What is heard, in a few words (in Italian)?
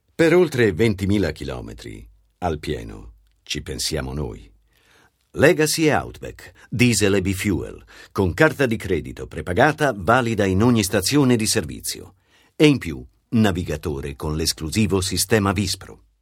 spot